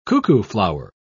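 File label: "cuckooflower", British English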